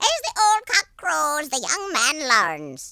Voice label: "you'll regret that", Irish; speech